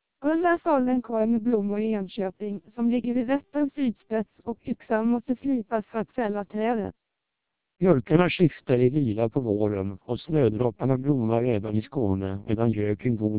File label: speech, Swedish, 600 bps TWELP